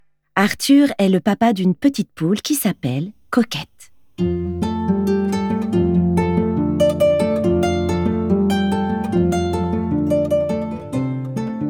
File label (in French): voix off conte musical